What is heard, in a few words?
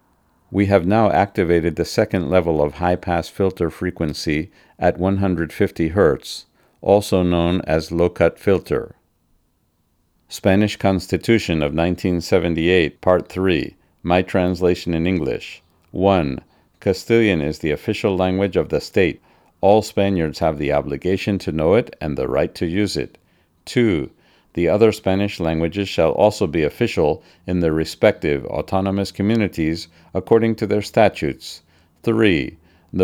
VideoMic NTG 150Hz flat